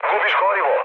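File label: Serbian tank damaged mood med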